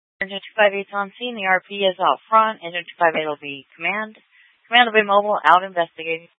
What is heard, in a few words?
Fire Departments VHF 154.800 Patch, Mesa Simulcast; TOPAZ